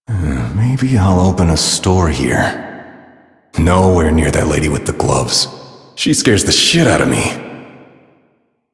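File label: Citizen Male, English; Warframe; Mall; Citizens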